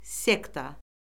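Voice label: "sekta", Azerbaijani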